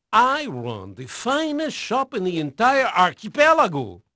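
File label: Portuguese male, voice; Pirates Of The Caribbean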